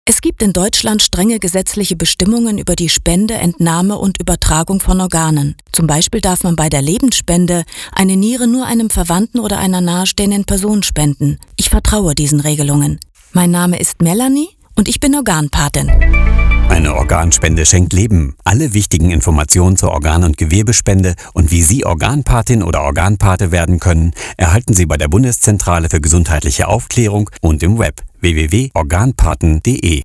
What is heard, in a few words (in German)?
Gesundheitswesen Pharma Radiowerbung